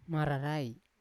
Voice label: "mararai", Yawa; close; unat